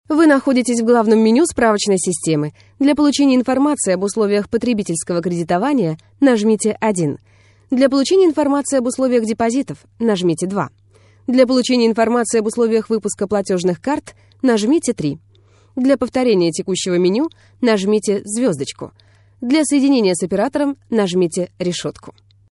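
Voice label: Female Russian ivr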